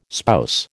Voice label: "spouse", American